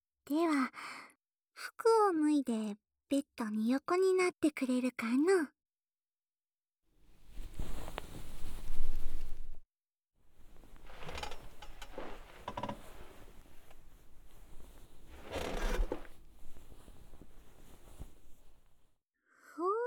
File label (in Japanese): 全身性感オイルマッサージ, ASMR